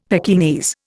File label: "Pekingese", Indian; Dictionary